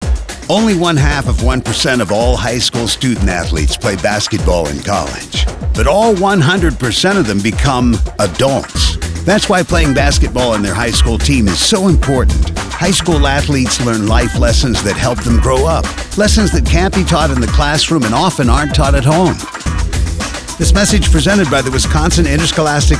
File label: audio spot